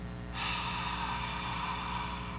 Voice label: HH, phones